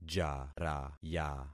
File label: "jaraya", concat syllables; Affixing experiment